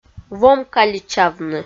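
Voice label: "вом каличавны", Komi, female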